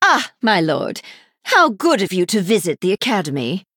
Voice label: Female High Elf Emote